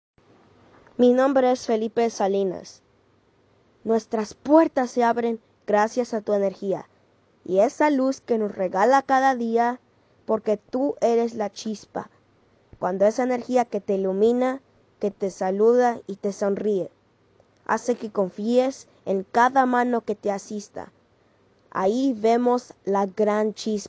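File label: SPANISH, voiceover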